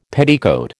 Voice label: "petticoat", American